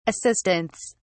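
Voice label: "assistants", British English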